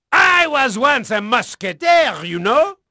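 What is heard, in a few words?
French male, voice; Pirates Of The Caribbean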